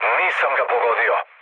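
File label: Serbian bomb failure mood low, speech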